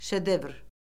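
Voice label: "şedevr", Azerbaijani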